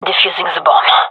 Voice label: defusing, gendarmerie fem; vo